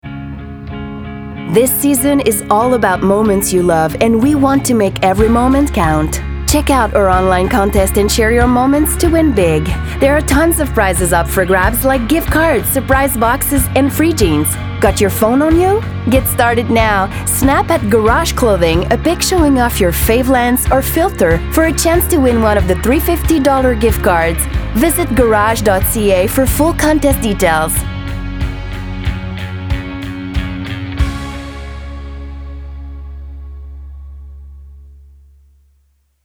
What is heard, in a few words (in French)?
Spot Anglais